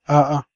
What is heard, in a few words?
no, hausa